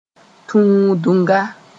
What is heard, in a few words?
"tũudunga", moore; determiner